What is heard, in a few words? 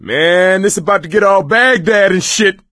reaction negative, survivor voice; Left 4 Dead 2; coach